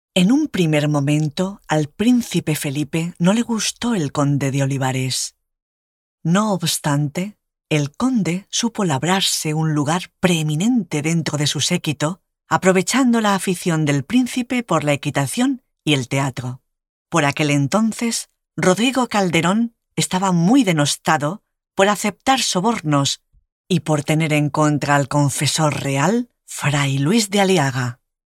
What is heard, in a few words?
locutora spanish voiceover